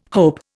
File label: "hope", Indian